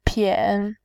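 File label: "pian", Mandarin, tone 3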